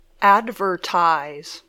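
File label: "advertise", English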